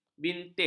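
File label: "bintik", Malay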